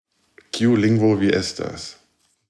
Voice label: deutsch esperanto

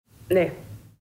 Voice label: finnisch schwedisch finnland

